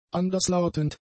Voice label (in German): "anderslautend", German; adjectives